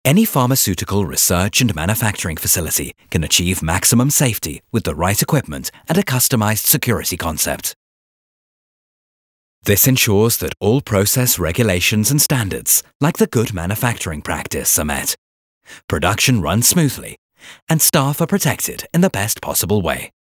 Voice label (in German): erklaerfilm decontamination